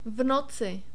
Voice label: "v noci", czech

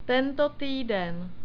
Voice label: "tentotyd", Czech

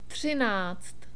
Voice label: "třináct", Czech; numbers

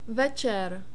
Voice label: "vecer", Czech

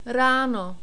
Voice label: "rano", Czech

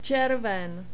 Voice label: "cerven", Czech

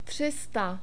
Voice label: "tři sta", Czech; numbers

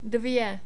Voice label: "dve", Czech, numbers

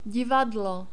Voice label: divad, czech

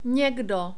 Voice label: nekdo, czech

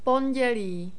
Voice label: "pondeli", Czech

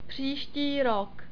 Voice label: "pristiro", Czech

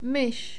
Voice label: mys, czech

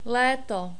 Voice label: "leto", Czech

